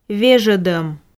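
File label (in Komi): "вежӧдӧм", Komi, female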